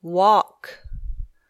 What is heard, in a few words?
"walk", English